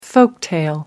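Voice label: "folktale", British English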